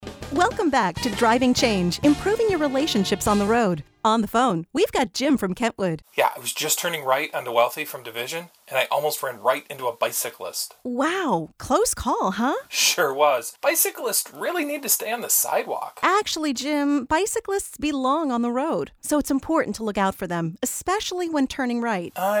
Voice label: Radio Motorist